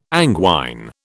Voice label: "anguine", British

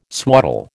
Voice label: "swaddle", American

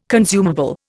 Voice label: "consumable", Indian

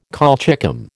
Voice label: "colchicum", American

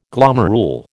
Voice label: "glomerule", American

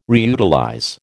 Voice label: "reutilize", American

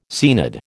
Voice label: "Seanad", American

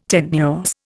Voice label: "tenuous", Indian

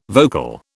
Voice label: "vocal", British

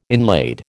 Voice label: "inlaid", American

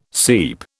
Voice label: "seep", British

